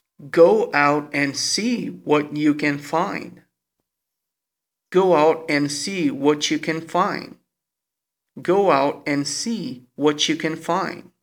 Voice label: Connected Speech